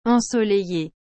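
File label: "ensoleillee", French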